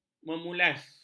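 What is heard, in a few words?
"memulas", Malay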